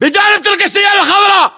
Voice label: landmark near cargreen, localized english battlechatter